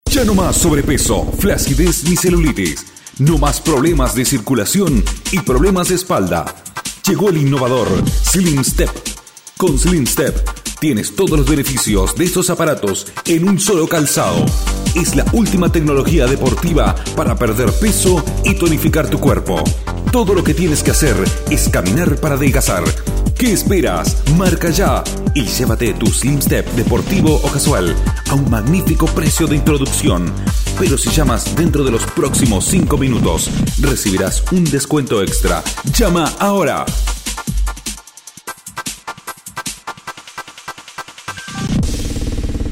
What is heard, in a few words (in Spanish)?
Publicidad